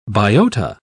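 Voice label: "biota", British English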